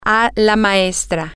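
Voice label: female, spanish; teacher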